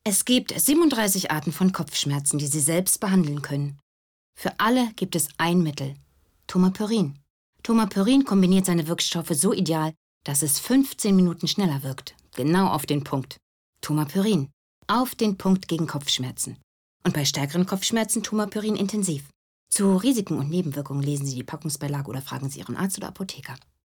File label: Commercial Kopfschmerz